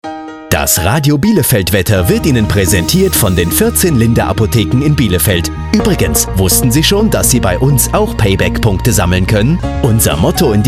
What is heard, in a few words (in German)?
radiospot